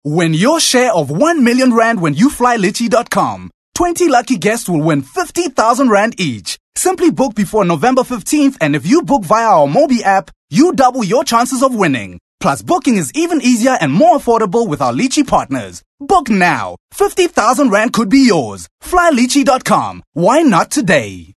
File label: English Hardsell